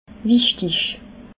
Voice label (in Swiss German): "wichtig", alsace